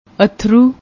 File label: "athru", Punjabi; ਅੱਥਰੂ